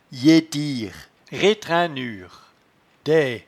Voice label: "yetire", patois jura

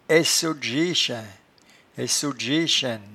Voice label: "aissodgechaint", patois jura

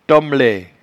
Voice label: "tomelee", patois jura

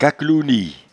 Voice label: "caquelouenie", patois jura

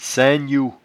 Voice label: "saingnou", patois jura

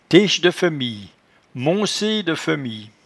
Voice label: "techedefemie", patois jura

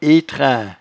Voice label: "etrain", patois jura

